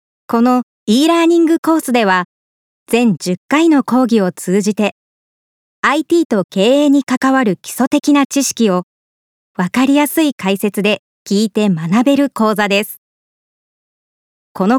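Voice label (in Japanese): コース紹介 ナレーション, ボイスサンプル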